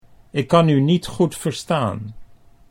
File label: "ik kan U niet goed verstaan", Dutch